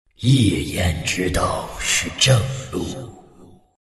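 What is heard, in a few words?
levelup, chinese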